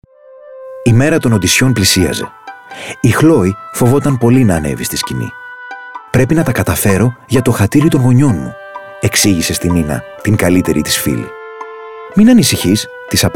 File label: GR audiobook; Η diakritiki prigkipissa